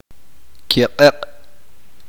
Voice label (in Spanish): uspanteko viento